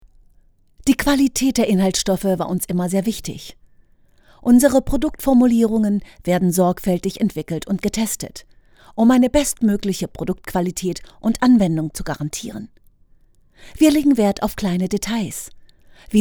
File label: corporate, German, female